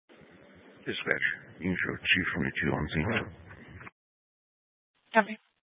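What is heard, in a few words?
Incident Tactical, scanner audio recording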